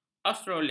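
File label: "australia", Malay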